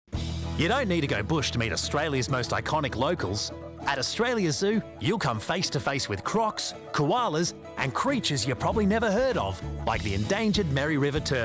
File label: NARRATION, Authentic Australian Voiceover; AUSTRALIA ZOO